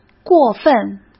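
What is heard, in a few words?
"过分", Mandarin Chinese; chinese-flashcards; hsk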